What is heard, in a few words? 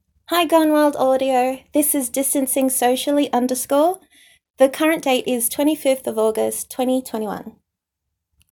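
Female verification; GWA Verification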